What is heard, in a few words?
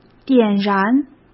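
"点燃", Mandarin Chinese; chinese-flashcards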